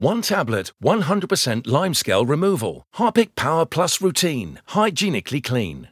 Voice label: male english commercial; Harpic

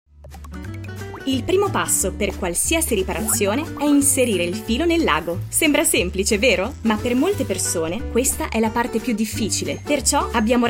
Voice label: female Italian video tutorial; primark

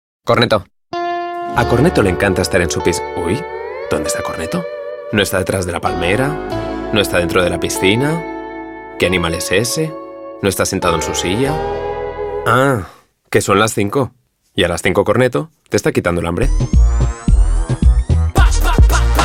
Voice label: male spanish castilian commercial; Cornetto

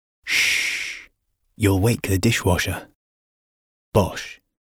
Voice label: male non binary english commercial

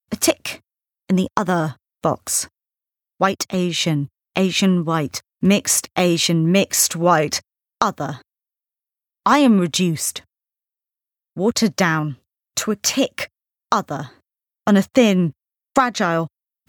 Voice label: female english with indian accent poetic style; devine voices

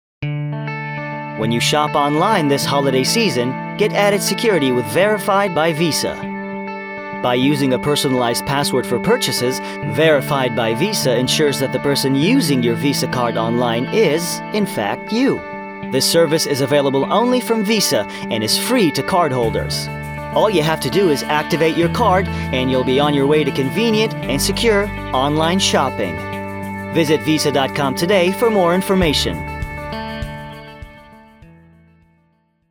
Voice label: male arabic and english usa usa accent commercial

